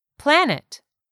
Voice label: "PLANET", English; 3000 words

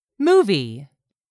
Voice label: "movie", English; 3000 words

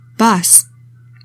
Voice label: "BUS", English; 3000 words

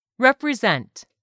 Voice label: "REPRESENT", english; 3000 words

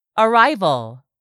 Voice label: "arrival", english; 3000 words

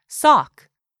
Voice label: "SOCK", english; 3000 words

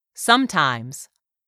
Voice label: "SOMETIMES", english; 3000 words

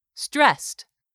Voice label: "STRESSED", english; 3000 words

